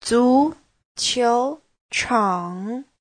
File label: "zu2qiu2chang3", Chinese; 足球场 : (danh từ) sân bóng đá